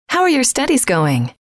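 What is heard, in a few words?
how are your studies going, female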